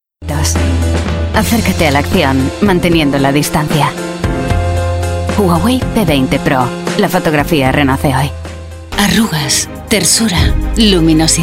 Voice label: Commercial Demo, Spanish/English talent. She's smooth, sexy, and sweet as well as hard hitting